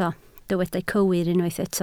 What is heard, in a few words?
"cywir", Welsh